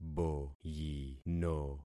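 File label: "boyino", concatenated syllables; Affixing experiment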